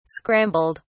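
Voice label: "scrambled", British English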